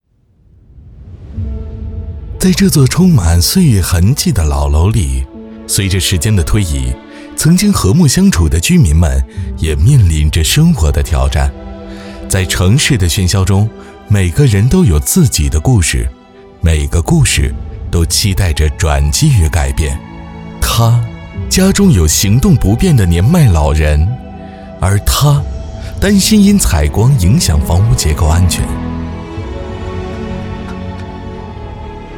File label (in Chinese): 沙画讲解男